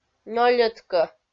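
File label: "нёльӧд-кӧ", Komi, female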